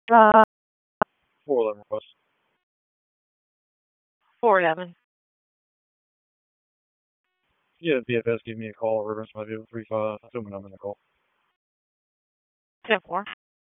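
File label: Police Dispatch; Raleigh; Southeast District; wake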